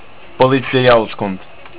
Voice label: "Police", Estonian

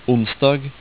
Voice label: "onsdag", Norwegian

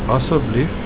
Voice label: please, Afrikaans

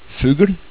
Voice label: "fugl", Norwegian

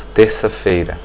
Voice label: tuesday, portuguese

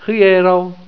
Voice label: "hierau", esperanto

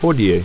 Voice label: "hodie", ido

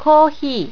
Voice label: "kohi", japanese

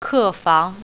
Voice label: "room", Mandarin

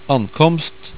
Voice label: "ankomst", Norwegian

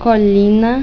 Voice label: "Collina", Interlingua

